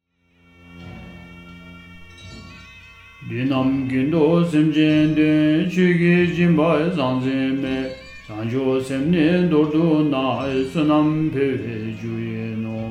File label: Verse Recording with music